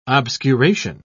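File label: "obscuration", British English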